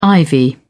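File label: "ivy", British English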